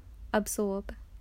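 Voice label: "absorb", Australian English, female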